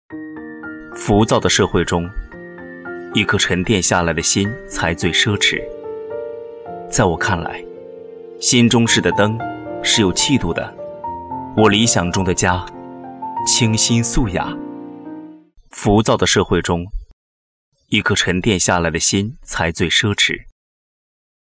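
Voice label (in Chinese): 男（讲述感）; 我理想中的家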